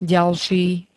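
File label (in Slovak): "ďalší", Slovak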